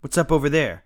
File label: mutter; Escape from Tarkov